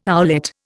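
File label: "owlet", Indian English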